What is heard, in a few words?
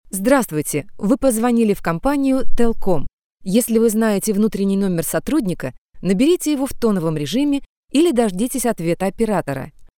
IVR; TELCOM